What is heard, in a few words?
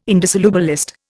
"indissolubilist", Indian